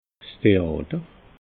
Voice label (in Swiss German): "theater", alsace